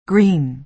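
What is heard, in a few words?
"green", American English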